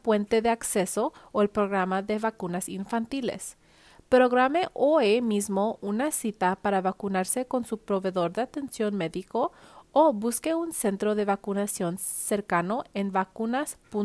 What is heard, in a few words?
covid message Spanish